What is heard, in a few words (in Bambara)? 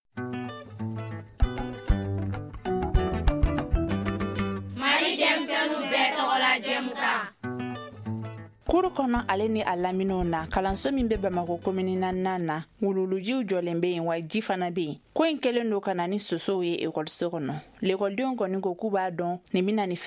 magazine bamanankan